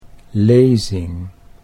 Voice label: "lezing", Dutch

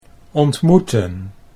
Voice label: "ontmoeten", Dutch

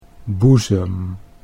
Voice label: "boezem", Dutch

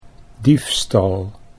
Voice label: "diefstal", Dutch

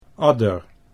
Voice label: "adder", Dutch

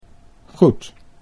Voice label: "goed", Dutch; 'good; well'

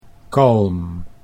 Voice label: "kalm", Dutch

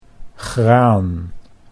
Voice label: "graan", Dutch; 'grain, cereal'

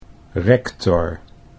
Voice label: "rector", Dutch

